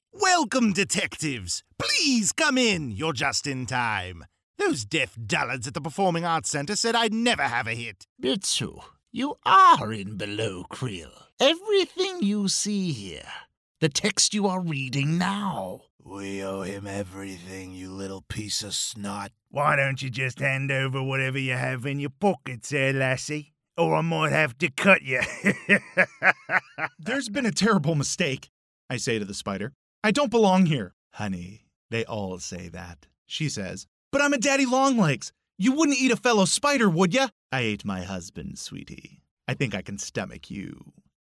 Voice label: Character Demo